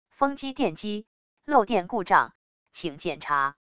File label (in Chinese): 语音, 语音报警器